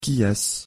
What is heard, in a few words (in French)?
qui est-ce, pronunciation fr